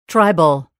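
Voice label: "tribal", English word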